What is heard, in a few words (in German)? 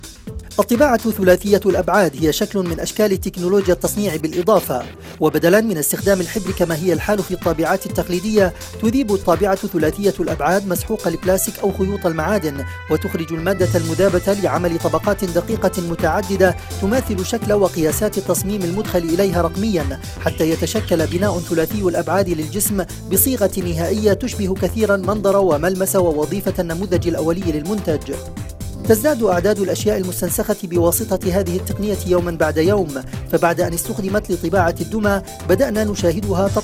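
Arabic, Kommentar, Neutral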